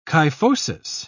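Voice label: "kyphosis", British English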